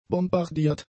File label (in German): "bombardiert", German; adjectives